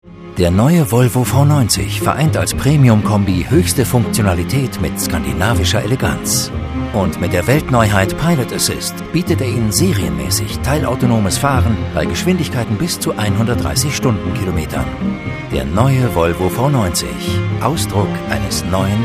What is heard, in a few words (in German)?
Freundlich; Volvo